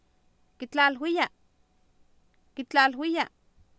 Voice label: "kitlaalwiya" Nahuatl, Oapan, female; Oapan minimal pairs 2000